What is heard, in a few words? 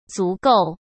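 "足够", Mandarin Chinese; chinese-flashcards; hsk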